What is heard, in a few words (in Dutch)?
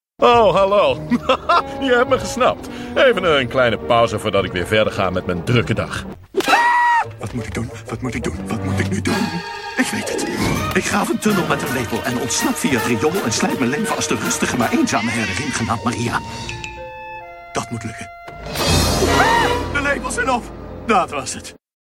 Voice over karakters